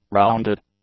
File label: "rounded", English; adjectives